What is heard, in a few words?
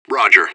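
radio bot response positive; fbihrt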